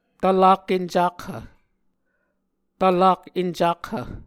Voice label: "tvlak-encakhv", muscogee